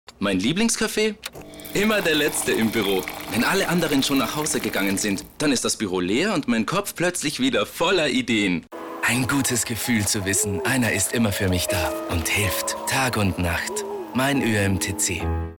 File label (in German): Mann